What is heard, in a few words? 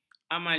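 "amali", Malay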